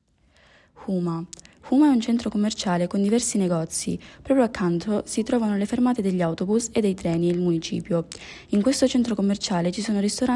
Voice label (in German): italienisch